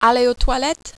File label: "aller aux toilettes", French